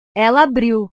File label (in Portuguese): "ela abriu", portugues; verbos